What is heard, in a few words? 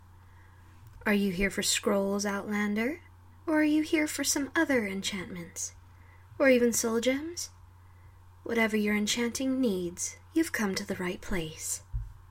female bosmer, wood elf voice; galbedir